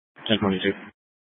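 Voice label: police dispatch, Scottsdale PD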